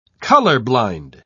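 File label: "color-blind", British English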